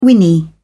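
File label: "whinny", British English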